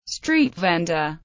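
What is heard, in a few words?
"street vendor", English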